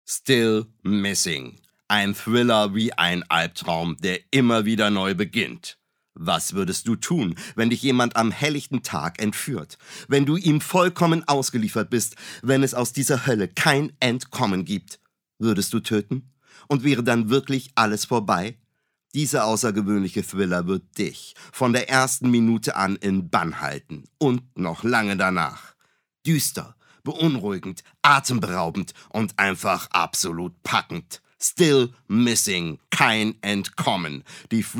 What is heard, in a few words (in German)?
Werbung